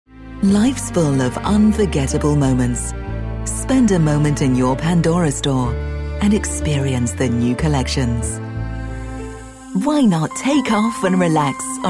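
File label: commercial, female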